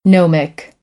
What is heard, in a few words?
"gnomic", American; oʊ - əʊ